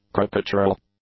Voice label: "caricatural", English; adjectives

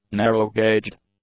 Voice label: "narrow-gauged", English; adjectives

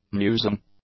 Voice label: "musing", English; adjectives

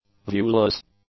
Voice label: "viewless", English; adjectives

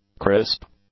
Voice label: "crisp", English; adjectives

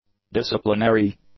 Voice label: "disciplinary", English; adjectives

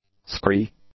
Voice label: "scree", English; nouns

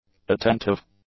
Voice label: "attentive", English; adjectives

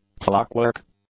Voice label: "clockwork", English; nouns

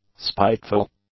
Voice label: "spiteful", english; adjectives

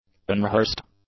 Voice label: "unrehearsed", english; adjectives

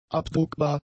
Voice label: "abdruckbar", German; adjectives

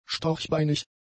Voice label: "storchbeinig", German; adjectives

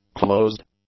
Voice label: "closed", English; adjectives

